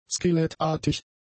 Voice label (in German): "skelettartig", german; adjectives